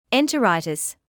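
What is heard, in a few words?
"enteritis" English, British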